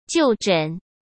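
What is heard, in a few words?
"就诊", Mandarin Chinese; hsk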